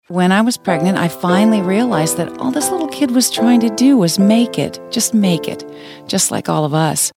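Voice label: US commercial softsell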